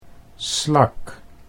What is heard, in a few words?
"slak", Dutch